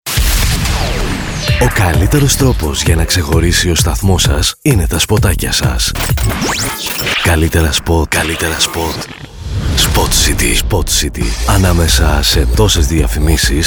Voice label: SWEEPERS; SPOTCITY